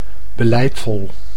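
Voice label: "beleidvol", Dutch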